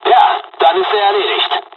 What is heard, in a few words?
dialog, dialogs german; War Thunder; midway